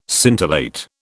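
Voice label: "scintillate", British English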